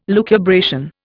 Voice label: "lucubration", Indian

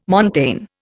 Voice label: "montane", Indian

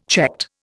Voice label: "checked", Indian

